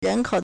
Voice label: "ren2 kou3", Chinese

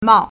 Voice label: "mao4", Chinese

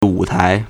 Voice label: "wu3tai2", Chinese

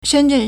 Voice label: "shen1zhen4", Chinese

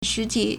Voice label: "shi2ti3", Chinese

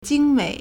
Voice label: "jing1mei3", Chinese

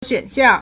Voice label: "xuan3xiang4", Chinese; 选项; 選項; xuǎnxiàng; options

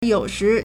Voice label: "you3 shi2", Chinese

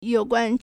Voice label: "you2 guan1", Chinese

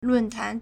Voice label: "lun4tan2", Chinese

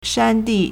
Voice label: "shan1di4", Chinese

